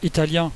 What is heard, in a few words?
italian